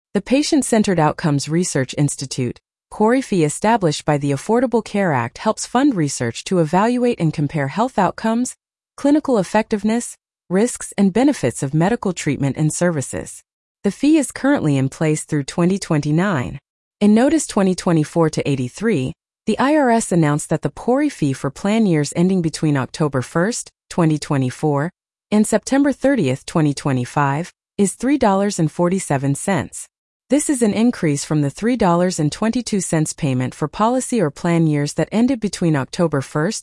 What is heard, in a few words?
Blog Narration; PCORI Fee Released